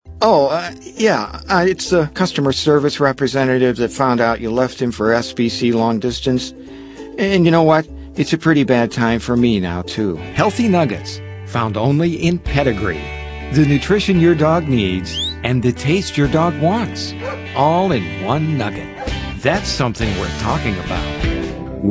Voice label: male natural character